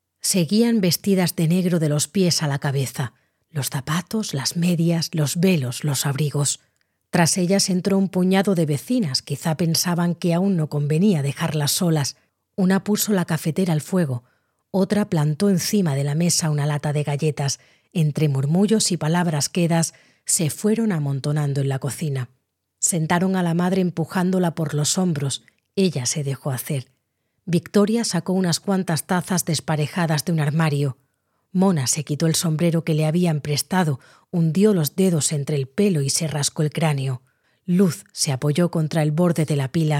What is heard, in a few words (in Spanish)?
Audiolibro